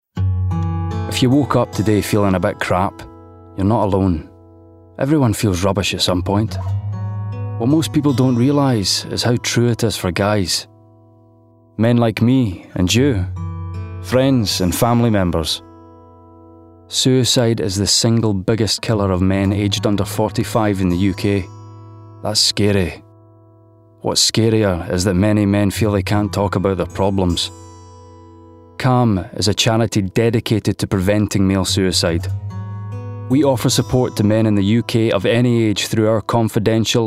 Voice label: Commercial; Calm Mental Health

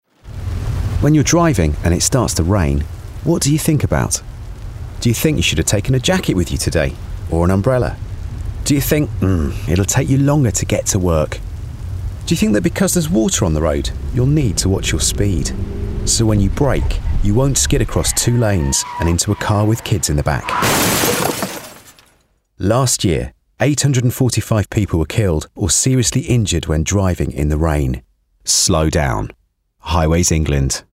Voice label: Commercial; Highways England

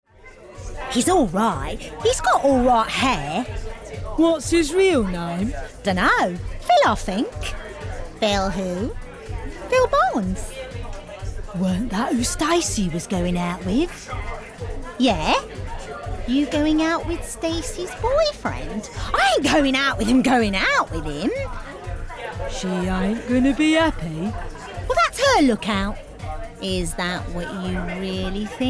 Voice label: Character; Bunch of Gossips

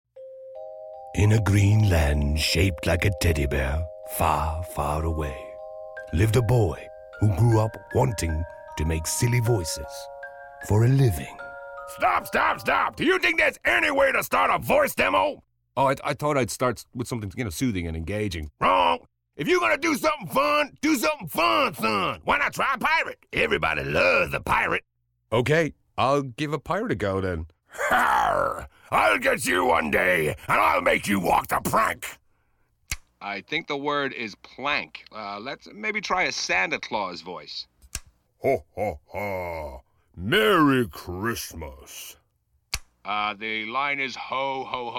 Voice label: Impressions

